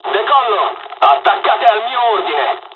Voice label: japanese first wave, dialogs italian; War Thunder

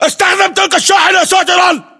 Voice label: order cover truck, battle chatter, english

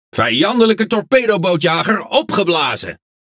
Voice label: NL Speech Destroy Enemy Destroyer; World Of Warships

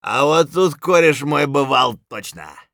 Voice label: scav mutter, voice; Escape from Tarkov; scav4

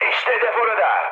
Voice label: near waypoint, mood high, speech tur; War Thunder

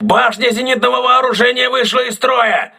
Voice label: aa turret damaged, crew ships russian

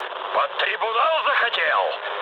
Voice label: player killed ally, mood low, speech ru

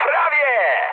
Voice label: bomb failure mood high, speech pol; War Thunder

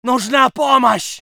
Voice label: voice sov infantry

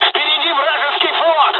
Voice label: dialog, dialogs russian; us midway; War Thunder

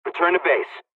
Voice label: dialogs polish; War Thunder

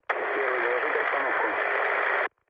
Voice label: chat, speech ru

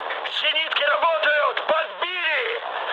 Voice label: damaged aa, mood med, speech ru; War Thunder

